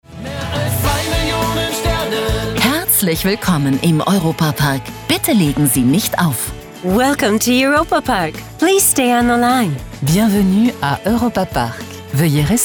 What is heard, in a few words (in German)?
telefonansagen; europa park